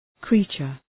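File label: "creature", English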